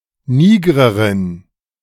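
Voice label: "Nigrerin", German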